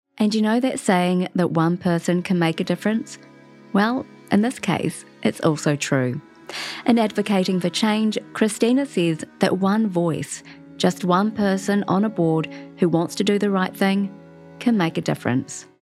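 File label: sincere, British English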